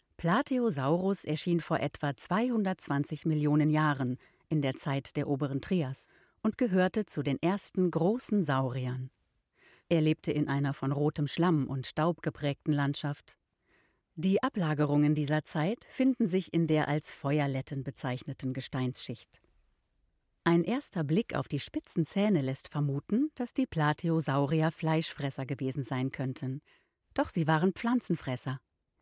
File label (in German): Museumsguide Erwachsene